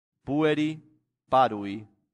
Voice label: "pueri parvi", latin; santillana

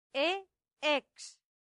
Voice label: "e ex", latin; santillana

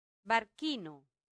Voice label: "barcino", latin; santillana

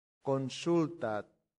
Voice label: "consultat", latin; santillana

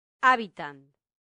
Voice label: "habitant", latin; santillana

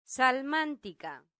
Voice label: "salmantica", latin; santillana

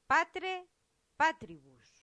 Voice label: "patre patribus", latin; santillana

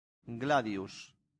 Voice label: "gladius", latin; santillana

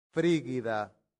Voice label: "frigida", Latin; santillana

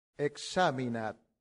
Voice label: "examinat", latin; santillana

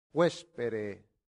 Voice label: "vespere", latin; santillana

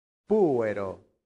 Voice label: "puero", latin; santillana

